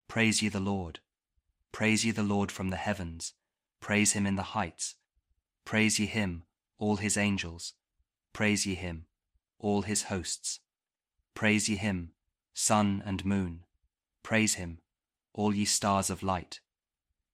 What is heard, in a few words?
psalm kjv king james audio bible